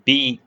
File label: short voyels arabic alphabet